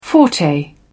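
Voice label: "forte", English, British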